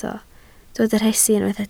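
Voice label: "rhesi" Welsh, spoken word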